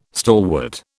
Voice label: "stalwart", British